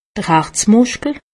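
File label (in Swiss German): "harzmuskel", Alsatian; medecine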